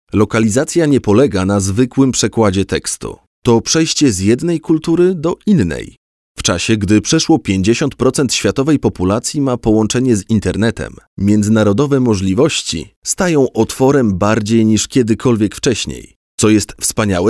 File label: polish male